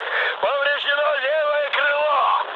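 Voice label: Russian left wing mood high, speech; War Thunder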